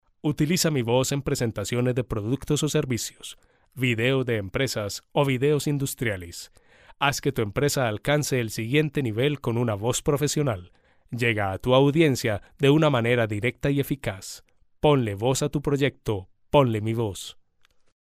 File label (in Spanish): Voz Masculina